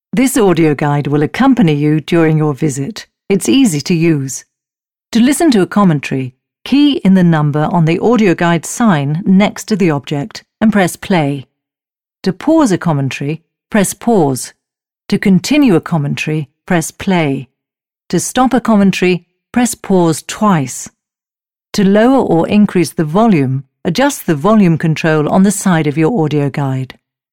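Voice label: English, female, narration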